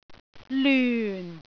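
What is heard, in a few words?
"lyun4", Cantonese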